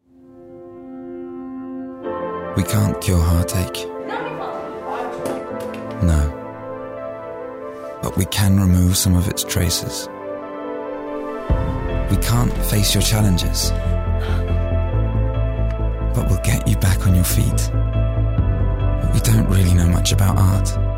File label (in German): GB UK Werbung LONG OFF; NIVEA-Always-There